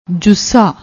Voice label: "joosa", Punjabi